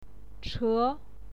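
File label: "che", Mandarin Chinese, second tone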